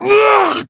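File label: Voice damaged, Japanese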